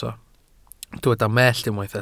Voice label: "mellt", welsh